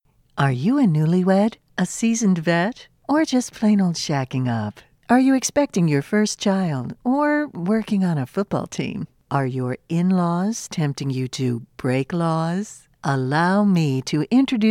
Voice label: Podcast